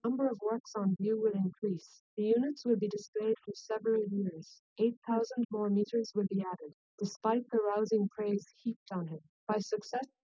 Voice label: female srec 130ms 20cm sim; SiSEC10; underdetermined

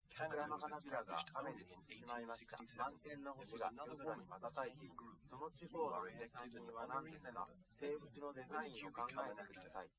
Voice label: test male liverec 130ms 5cm src; SiSEC08; SiSEC underdetermined

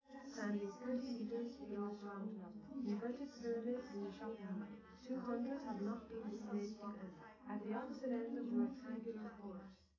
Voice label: female live rec

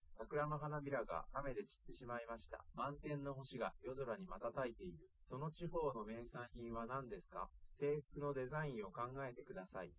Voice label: male liverec 130ms 1m sim

